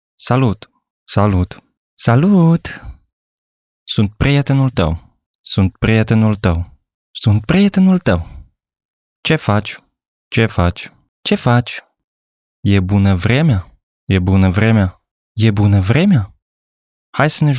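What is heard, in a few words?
Romanian man 28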